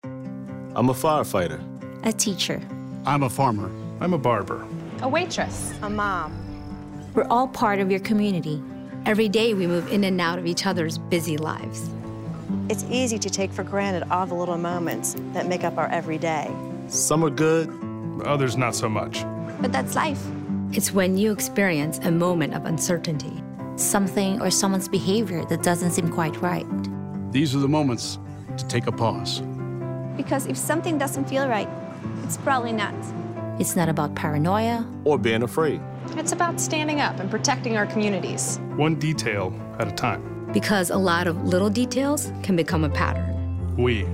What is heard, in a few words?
English